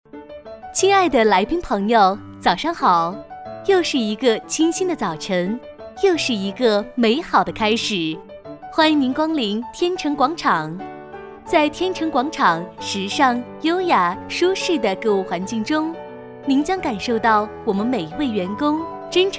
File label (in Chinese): 广播-广场广播语; 天诚广场